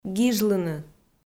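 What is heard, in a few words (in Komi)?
"гижлыны", Komi, female; audio dict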